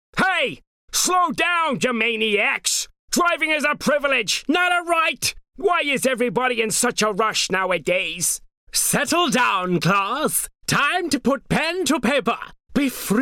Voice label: Animation